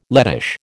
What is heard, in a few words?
"Lettish", American